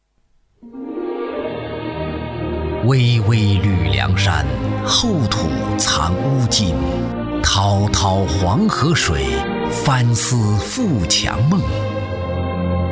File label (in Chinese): 男 专题 大气; 企业; 鑫龙煤业